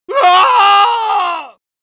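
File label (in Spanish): Medic pain critical death, Spanish; Grito de muerte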